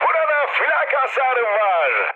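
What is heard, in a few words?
damaged aa, mood high, Turkish; War Thunder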